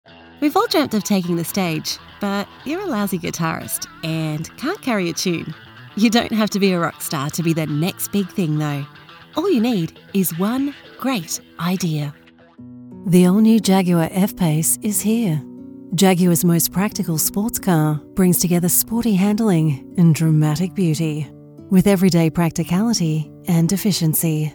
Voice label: commercial voice overs